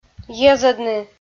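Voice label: "йӧзӧдны", Komi, female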